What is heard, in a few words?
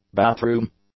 "bathroom", English